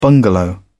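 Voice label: "bungalow", British English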